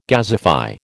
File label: "gasify", American